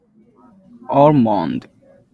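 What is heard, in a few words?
"almond", Japanese, male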